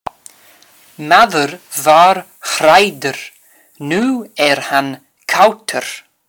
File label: Old Norse sentence